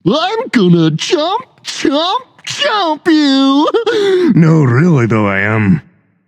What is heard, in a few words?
taunt, voice; smite; tyr